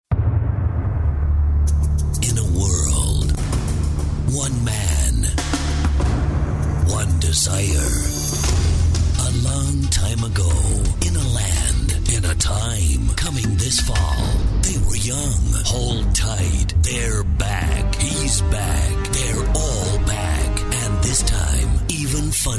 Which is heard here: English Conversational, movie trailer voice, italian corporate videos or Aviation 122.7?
movie trailer voice